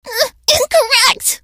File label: hurt vo